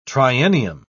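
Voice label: "triennium", British English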